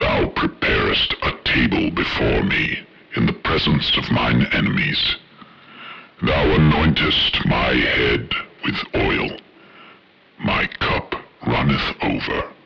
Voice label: preacher; dogma